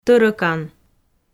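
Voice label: "тӧрӧкан", Komi, female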